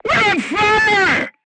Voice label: voice message fire in tank, vietnamese